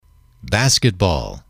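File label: "basketball", english, male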